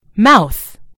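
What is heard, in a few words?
"mouth", British English; gcompris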